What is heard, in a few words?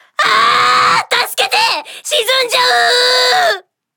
Marine Alarms Critical Flooding; Hosho; wows